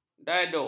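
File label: "daduk", Malay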